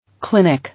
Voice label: "Clinic", English